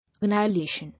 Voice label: "Annihilation", English

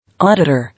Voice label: "Auditor", English

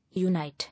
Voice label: "Unite", English

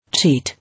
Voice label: "Cheat", English

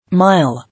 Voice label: "Mile", English

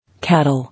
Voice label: "Cattle", English

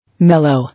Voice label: "Mellow", English

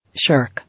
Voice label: "Shirk", English

